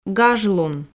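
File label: "гажлун", Komi, female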